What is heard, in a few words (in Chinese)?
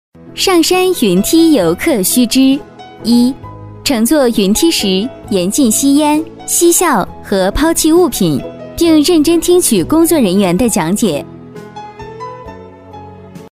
女国语, 温馨提示; 游客须知.